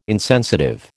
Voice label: "insensitive", American

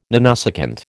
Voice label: "nanosecond", American

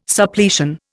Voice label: "Suppletion", Indian

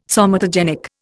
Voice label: "somatogenic", Indian

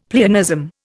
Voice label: "pleonasm", Indian; Dictionary

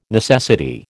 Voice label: "necessity", American

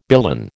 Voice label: "billon", American

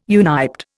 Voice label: "uniped", Indian

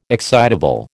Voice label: "excitable", American

